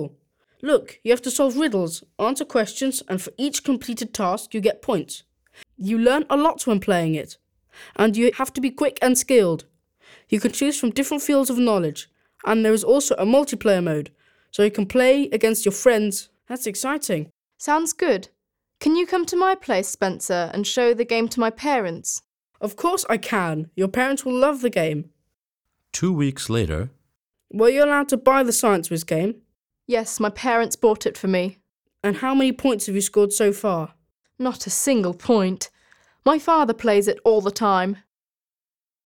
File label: Children are talking about computer games